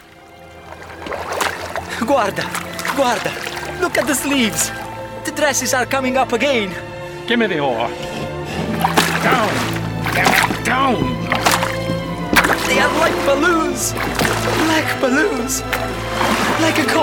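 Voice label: English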